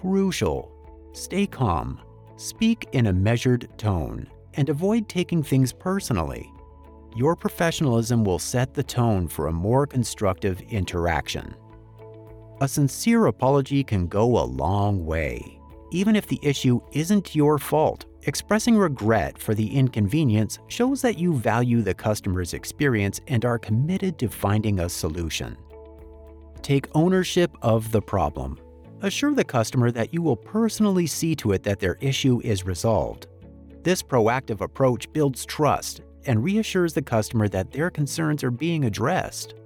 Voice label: eLearning - Dealing With Irate Customers